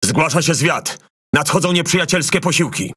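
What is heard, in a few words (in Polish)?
ENEMY REINFORCEMENT LEFT SPAWN, Polish; PVE; World Of Warships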